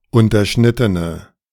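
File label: "unterschnittene", German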